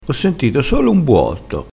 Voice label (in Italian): "buotto", crespinese